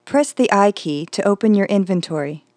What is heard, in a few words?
tutorial inventory